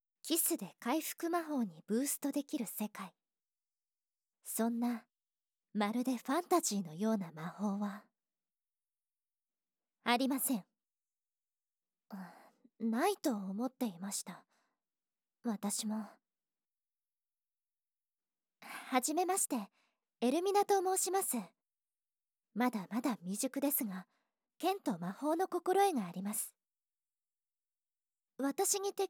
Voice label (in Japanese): CM用ボイス; 『キス』で回復魔法にブーストできる世界～聖騎士エルミナの場合～; 【密着ベロチューかなり多め】『キス』で回復魔法にブーストできる世界～真面目天然聖騎士 エルミナの場合～【キスしながら射精多め】